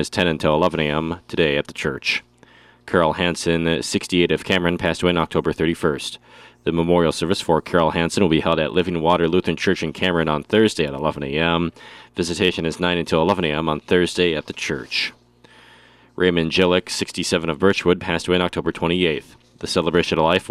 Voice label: Funeral Announcements